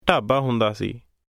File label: wayside restaurant phrase, punjabi